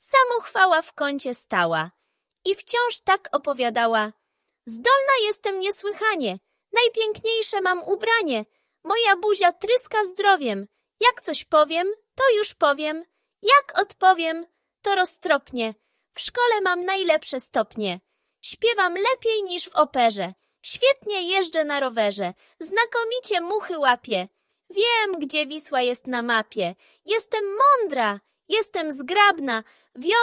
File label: audiobook; Samochwala; Jan Brzechwa